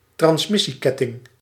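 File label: "transmissieketting", Dutch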